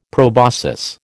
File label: "proboscis", American